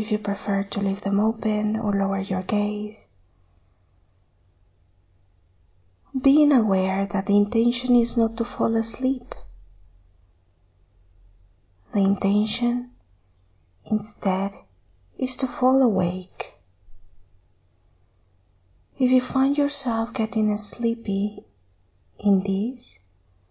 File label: Body scan meditation